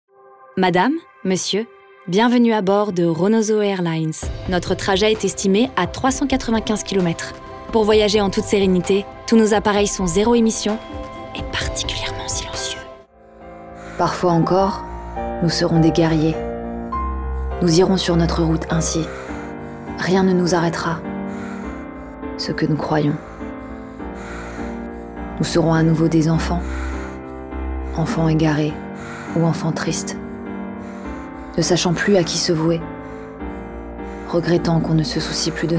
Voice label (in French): Voix Off